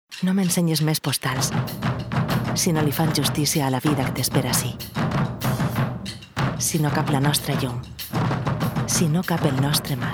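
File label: Spanish, female, commercial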